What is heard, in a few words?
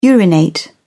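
"urinate", British English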